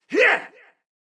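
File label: soldier voice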